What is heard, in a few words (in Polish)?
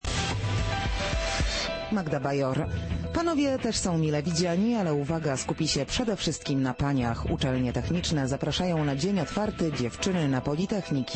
RADIO; RAM